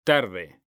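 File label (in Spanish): "tarde", Spanish, male; mekanta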